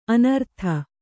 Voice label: "ಅನೂರಾಧ", Kannada